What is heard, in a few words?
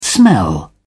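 "smell", English, British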